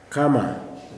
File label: "black", kuman